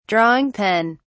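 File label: "drawing pen", British English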